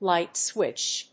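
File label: "light switch", English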